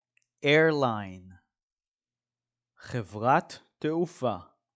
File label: "airline" – "חברת תעופה"